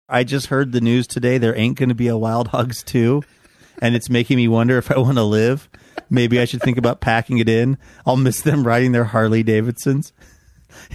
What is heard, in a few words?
reading lyrics